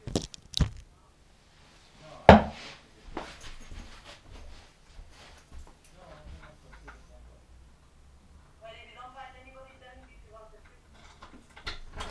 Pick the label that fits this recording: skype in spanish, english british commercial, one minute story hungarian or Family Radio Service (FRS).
skype in spanish